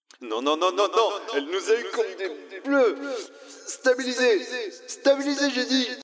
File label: Reverb Delay Equalizer